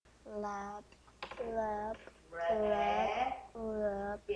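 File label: "rub", spoken word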